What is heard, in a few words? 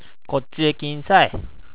"kinsai", HIROSHIMA ben; きんさい きなさい 親しい人に使用